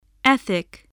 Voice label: "ethic", voice, english